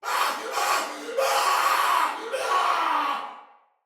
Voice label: Death Fire Soldier Male, Battle; Barks; Dialog; Sinecure Soldier VIP Male A